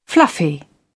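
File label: "fluffy", British English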